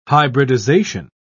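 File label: "hybridisation", British English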